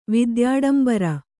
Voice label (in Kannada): "ವಿದ್ಯಾಡಂಬರ", Kannada